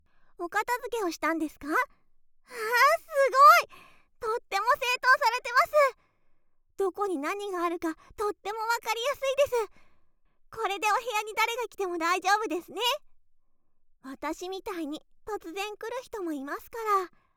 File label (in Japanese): 褒め お片づけをしてえらい！